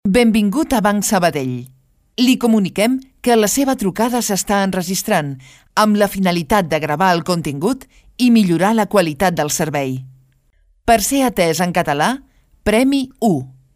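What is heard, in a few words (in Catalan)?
cat, Locutora online española-catalana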